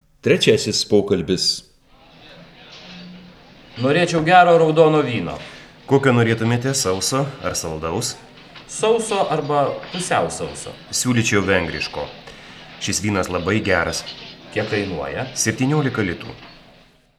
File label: Dialog